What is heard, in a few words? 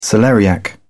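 "celeriac", British English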